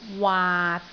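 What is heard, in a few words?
"waat6", Cantonese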